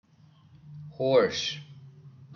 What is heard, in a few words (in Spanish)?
caballo, ingles